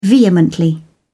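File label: "vehemently", British English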